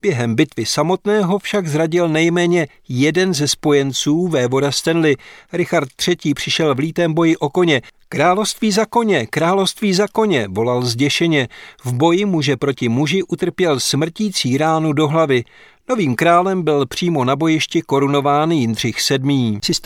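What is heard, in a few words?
Czech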